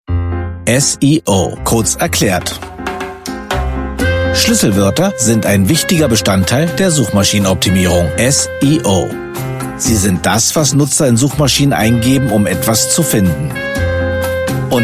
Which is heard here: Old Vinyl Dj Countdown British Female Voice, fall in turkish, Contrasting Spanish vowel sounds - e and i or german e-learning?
german e-learning